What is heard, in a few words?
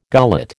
"gullet", American